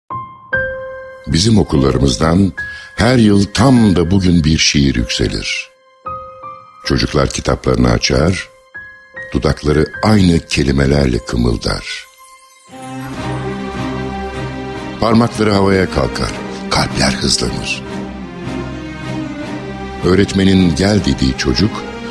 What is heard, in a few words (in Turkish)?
reklam; Voice Over Agency